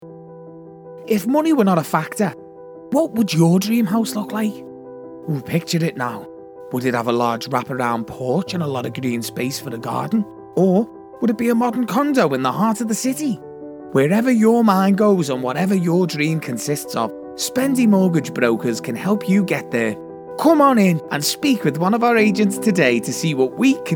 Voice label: VO HARD